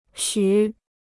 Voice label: "许", Chinese